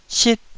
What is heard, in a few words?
"sit4", Taiwanese